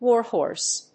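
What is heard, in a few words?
"warhorse", English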